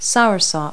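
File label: "soursop", English word; Multi-Lingual Talking Picture Dictionary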